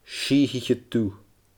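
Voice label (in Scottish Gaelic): "siithiche dubh", Scottish Gaelic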